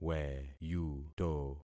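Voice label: "weyuto", concatenated syllables; Affixing experiment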